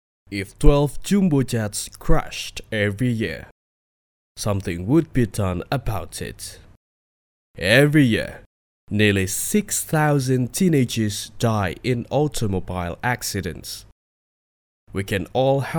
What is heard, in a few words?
formal English British accent